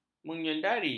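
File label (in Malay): "menyedari", Malay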